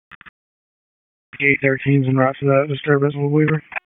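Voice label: Dispatch 1, P25; wake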